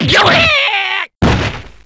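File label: super damage Japanese; Hazama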